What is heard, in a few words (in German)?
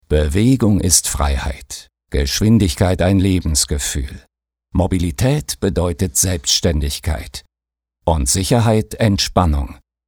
Sanft; Xios